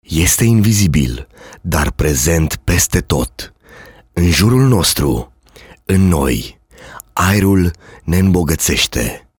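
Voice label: romanian male voice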